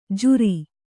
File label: "ಝೂರಿ", Kannada